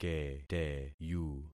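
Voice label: "keteyu", concatenated syllables; Affixing experiment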